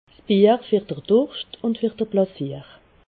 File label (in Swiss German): "durscht", alsace